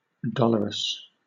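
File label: "dolorous", British English; pronunciations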